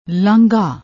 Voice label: "laangha", Punjabi

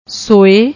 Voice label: "ਸੋਏ", Punjabi

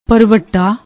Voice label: "bharvatta", Punjabi

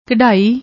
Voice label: "kddaai", Punjabi; ਕਢਾਈ

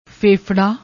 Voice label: "fefrra", Punjabi

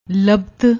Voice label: "labat", Punjabi

